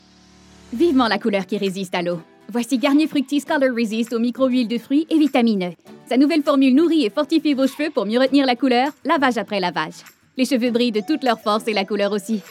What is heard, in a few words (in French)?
annonceur fr normatif affirme amical avec sourire energique jeune; fructis; adulte